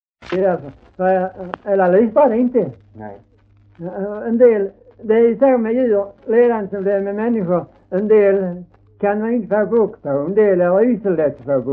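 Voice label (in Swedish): Hörja Skåne, dialekter; Folkminnen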